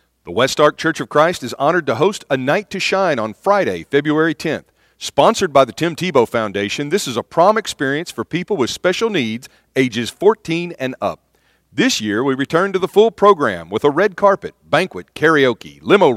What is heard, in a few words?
radio spot